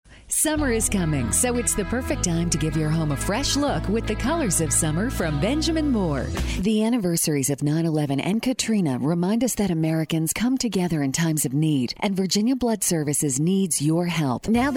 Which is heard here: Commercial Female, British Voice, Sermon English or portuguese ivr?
Commercial Female